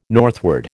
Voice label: "northward", American